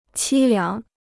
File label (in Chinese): "凄凉", Chinese